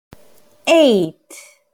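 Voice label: "Eight", English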